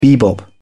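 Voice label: "bebop", British English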